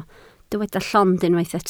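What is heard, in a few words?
"llond" Welsh, spoken word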